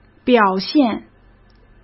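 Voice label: "表现", Mandarin Chinese; chinese flashcards; hsk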